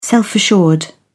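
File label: "self-assured", British English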